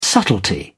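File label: "subtlety", British English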